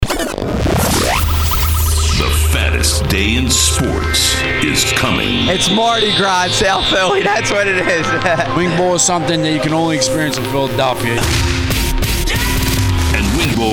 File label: PROMO; WIP; WINGBOWL